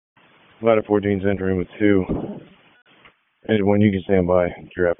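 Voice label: FIRE Operations, p25; FIRE OPS 1; wake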